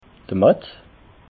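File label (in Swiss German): "matt", Haut Rhin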